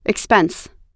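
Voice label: "expense", US English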